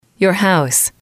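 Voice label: "house", English, female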